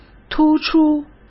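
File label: "突出", Mandarin Chinese; chinese-flashcards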